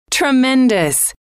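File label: "tremendous", female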